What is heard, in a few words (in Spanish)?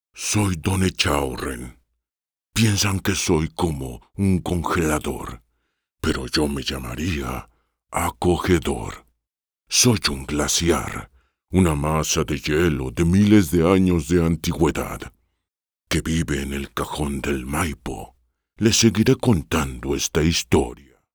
voz senior cuento